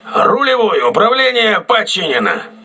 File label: rudder repaired, crew ships russian